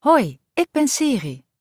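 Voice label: Dutch klaar; iOS Sounds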